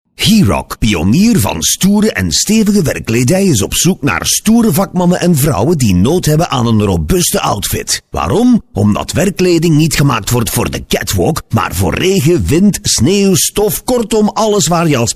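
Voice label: flemish male narration; dutch; voice demos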